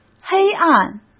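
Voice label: "黑暗", Mandarin Chinese; chinese-flashcards; hsk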